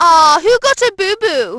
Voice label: booboo, Brit, Positive; Splashdown; XBOX

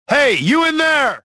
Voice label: suspicious; XBOX; rebel

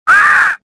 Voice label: pain; XBOX; worker

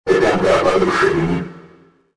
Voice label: russian; XBOX; ambience

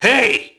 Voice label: mobster alert; Max Payne

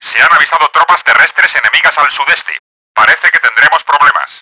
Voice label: tanks, Spanish; Battle Engine Aquila; XBOX

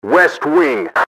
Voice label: west wing, enemy english team orders; XBOX

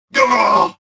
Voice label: choke; XBOX; weequay

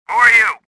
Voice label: suspicious

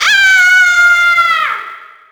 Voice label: girl; XBOX; Furious Karting; Decors

